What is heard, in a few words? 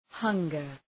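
"hunger", English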